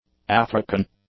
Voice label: "African", English; adjectives